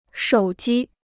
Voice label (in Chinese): "手机", Chinese